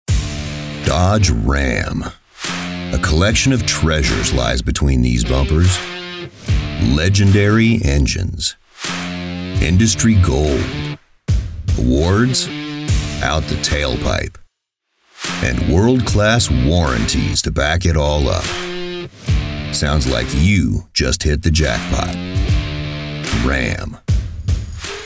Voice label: Commercial; Ram